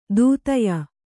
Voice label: "ದೂತ್ಯ", Kannada

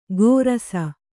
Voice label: "ಗೋರಸ", Kannada

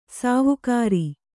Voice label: "ಸಾವುಕಾರಿ", Kannada

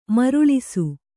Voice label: "ಮರಳಿಸು", Kannada

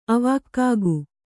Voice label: "ಆವಾಗ್ಗೆ", Kannada

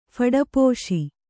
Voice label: "ಫಡಪೋಸಿ", Kannada

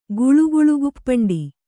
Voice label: "ಗುಳುಗುಳುಗುಪ್ಪಂಡಿ", Kannada; guḷu guḷu guppaṇḍi; a children's play.

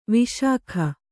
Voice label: "ವಿಶಾಖ", Kannada

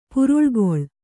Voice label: "ಪುರುಳ್ಗೊಳ್", kannada

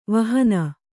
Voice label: "ವಿಹೀನೆ", kannada

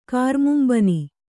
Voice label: "ಕಾರ್ಮುಂಬನಿ", Kannada

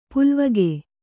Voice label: "ಪಾಲ್ವಕ್ಕಿ", kannada

